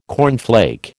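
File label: "cornflake", American English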